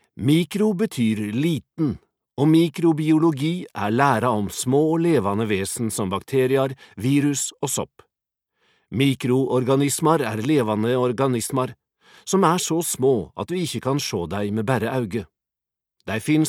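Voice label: nynorsk, norwegian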